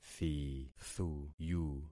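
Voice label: "thithuyu", concatenated syllables; Affixing experiment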